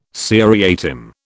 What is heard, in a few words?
"seriatim", British